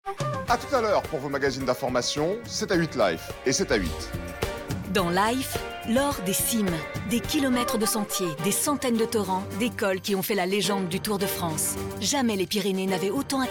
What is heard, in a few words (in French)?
Voix off; TF1; 7A8; ba 7a8 sur tf1